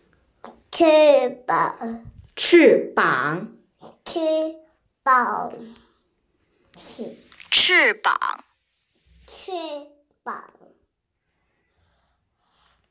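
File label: "wing", hearing impaired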